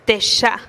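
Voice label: "teexa", mi pecho, Teotitlán del Valle Zapotec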